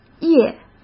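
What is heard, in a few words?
"页", Mandarin Chinese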